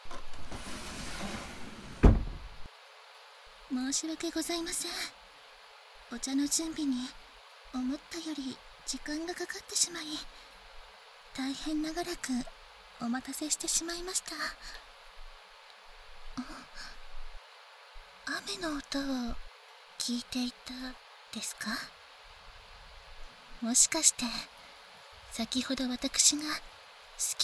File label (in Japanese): まったり雨の音を聞きながらお茶, バイノーラルマイク 効果音あり, ASMR